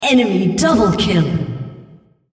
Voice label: female, double kill

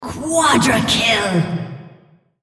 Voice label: female On Champion Quadra Kill

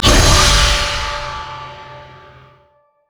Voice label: battlecry; Xin Zhao

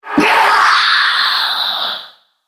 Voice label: Spider dying, en US; EliseSpider

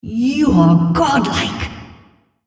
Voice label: female On Killing Spree

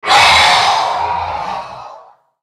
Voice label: spell cast effort; CyberNocturne; Character Voices - English

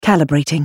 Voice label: ultimate effort; Caitlyn; Character Voices - English

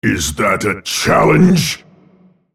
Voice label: attack, en US

